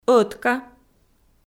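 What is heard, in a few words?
"ӧтка", Komi, female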